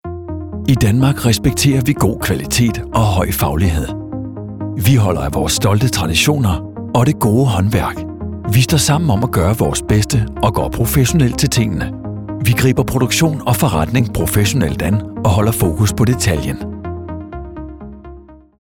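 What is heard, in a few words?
Danish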